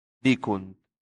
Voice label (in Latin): "dicunt", latin; santillana